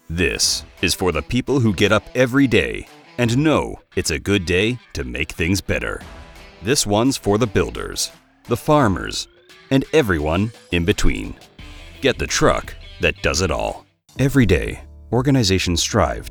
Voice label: Commercial demo

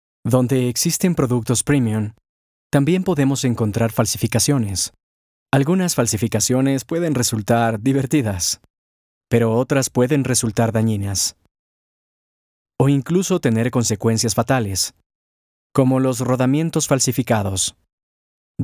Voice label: Political Spots

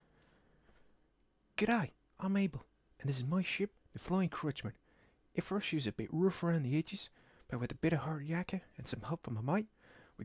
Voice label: Australian voice sample

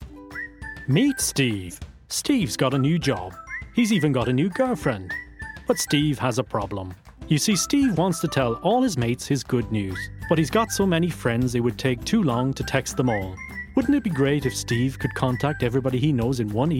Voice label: Explainer Video

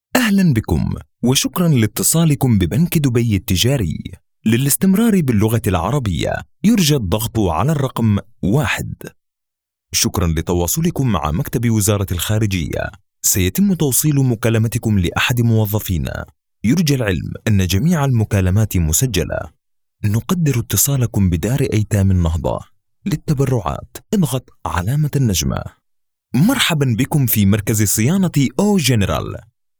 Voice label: IVR Standard Arabic DRY

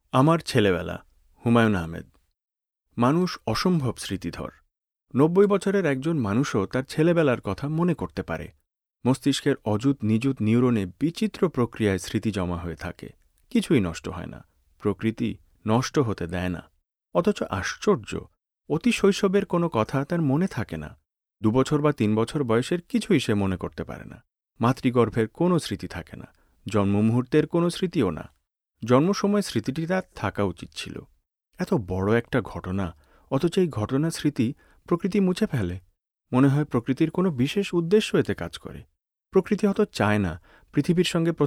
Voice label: Bengali Audiobook

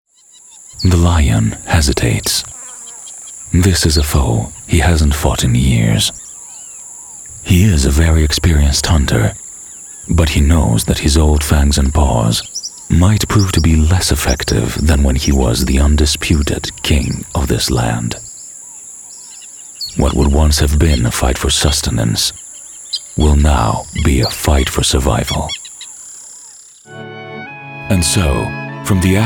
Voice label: Documentaries

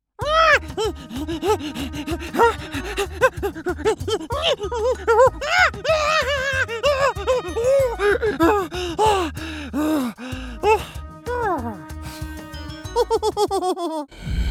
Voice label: Creature

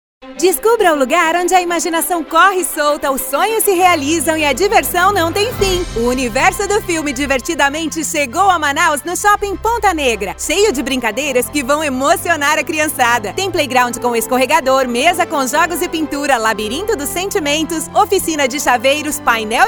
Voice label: Promo trailer movie Teen Kid

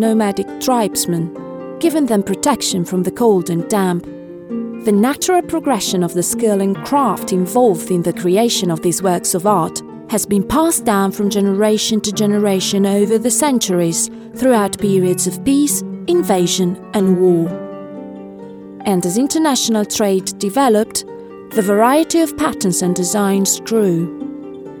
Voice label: CARPET WEAVING IN IRAN English Documentary

